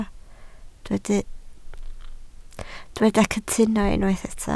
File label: "cytuno", Welsh